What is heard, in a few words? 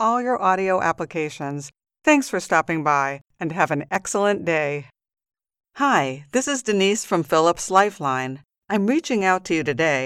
IVR, VOICEMAIL, PHONE SYSTEMS, AND OTHER ON-HOLD MESSAGES